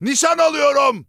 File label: neutral